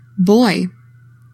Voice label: "boy", english; 3000 words